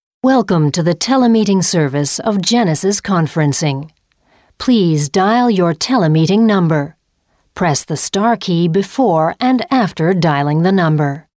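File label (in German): Australian telefon, sprecher englisch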